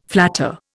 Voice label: "flatter", Indian